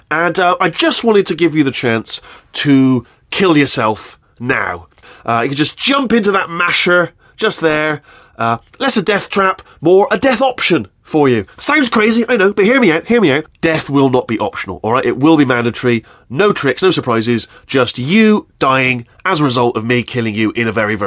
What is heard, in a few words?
motivational speech